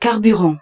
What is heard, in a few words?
French, Fuel